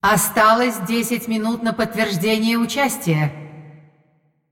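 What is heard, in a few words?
announcer female tournaments lockin 10min, hu_hu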